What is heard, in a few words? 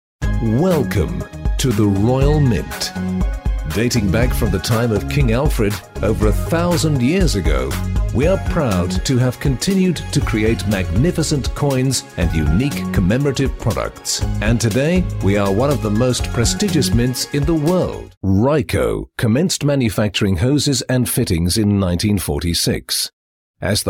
Corporate